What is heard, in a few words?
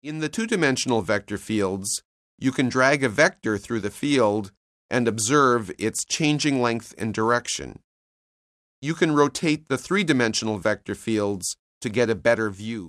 narration; CALCULUS 7E; cengage